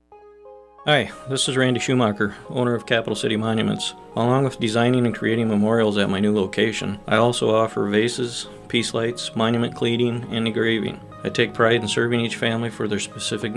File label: Radio Commercial; Capital City Monuments; KGFX; KCCR